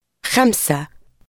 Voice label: number five arabic